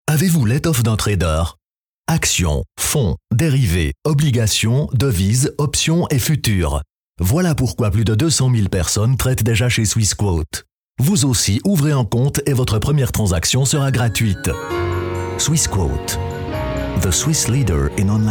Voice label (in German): Werbung FR CH; franzoesisch schweiz